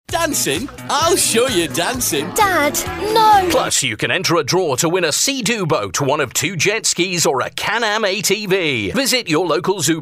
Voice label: voiceover